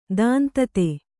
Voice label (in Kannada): "ದಾಂತತೆ", Kannada